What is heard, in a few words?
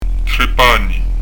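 "3 pani", Prononciation du corse; trè p'ani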